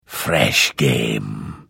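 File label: Hello, Vo; Morrowind GOTY GOG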